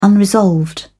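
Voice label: "unresolved", English, British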